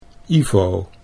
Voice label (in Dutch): "Ivo", Dutch